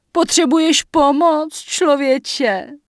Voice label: Human Female Merchant Start Shopping; wowpreklad; czwow; NPC

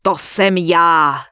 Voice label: Human Female Warrior NPC Greeting; wowpreklad; czwow

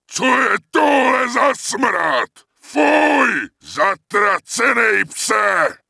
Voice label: Wolfrider Pissed; wowpreklad; czwow

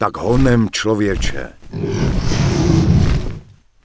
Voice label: Human Male Attack My Target, Human Vocal Male; wowpreklad; czwow

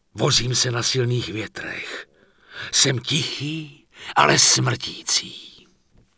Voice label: Night Elf Male Pissed; wowpreklad; czwow; NightElfVocalMale

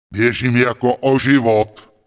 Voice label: Night Elf Male Flee; wowpreklad; czwow; NightElfVocalMale

